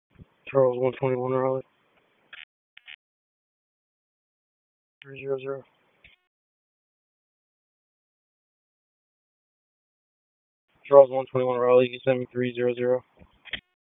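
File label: Police Southwest District Dispatch; Raleigh; wake; p25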